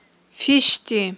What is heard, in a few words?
"фиштти", Aghul